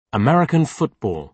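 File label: "american football", British English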